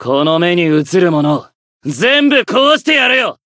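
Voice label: Voice limit break, Japanese; yakumo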